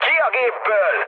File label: bailout, speech hun; War Thunder